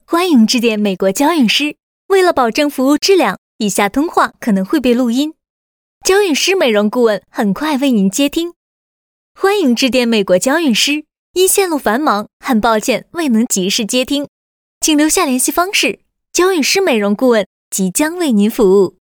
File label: Mandarin China IVR; Clarins